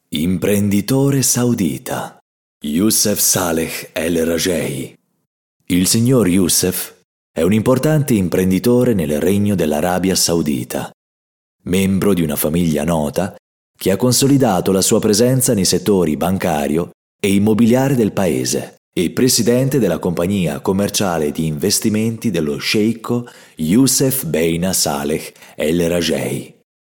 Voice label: presentatio